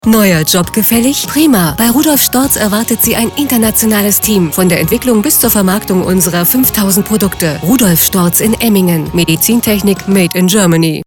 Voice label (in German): Radiospot